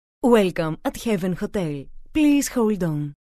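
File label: Greek, female, English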